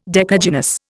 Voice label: "decagynous", Indian